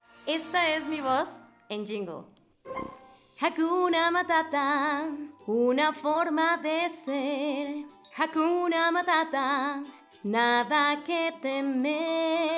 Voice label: Jingle, locutora; Sin copyright

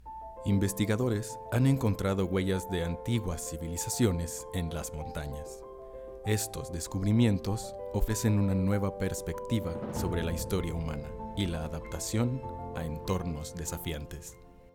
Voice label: voz documental, locutor

